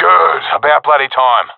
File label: round winning, professional epic; vo; Counter Strike Model Pack; CS:GO